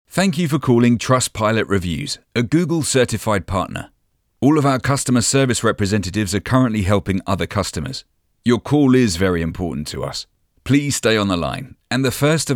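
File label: english british interactive voice response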